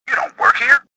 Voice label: suspicious; XBOX; worker

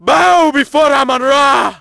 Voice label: bow, positive; Splashdown; XBOX; Amman

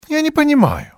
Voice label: "dont understand", male; XBOX; civ

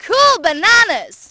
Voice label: banana, Brit, Positive; Splashdown; XBOX

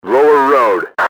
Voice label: lower road, enemy english team orders; XBOX

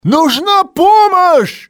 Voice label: get help, male; XBOX; civ

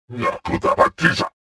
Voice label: suspicious

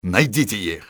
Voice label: find them, russian soldier; XBOX

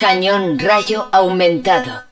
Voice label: hud rail gun augmented, Spanish

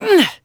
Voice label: ENG; Cars; XBOX

